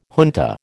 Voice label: "junta", American

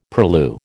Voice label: "purlieu", American

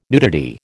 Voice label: "nudity", American

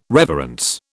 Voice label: "reverence", British

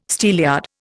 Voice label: "steelyard", Indian

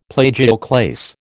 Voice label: "plagioclase", American

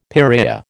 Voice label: "piraya", American

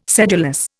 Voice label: "sedulous", Indian

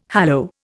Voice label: "hallow", Indian

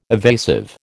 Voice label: "evasive", American

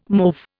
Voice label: "mauve", Indian

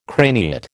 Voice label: "craniate", American

